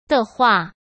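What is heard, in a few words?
"的话", Mandarin Chinese; chinese-flashcards; hsk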